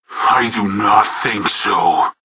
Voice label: heavy pain; zero